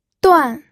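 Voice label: "duan", pinyin, fourth tone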